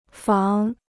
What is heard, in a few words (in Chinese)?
"仿", Chinese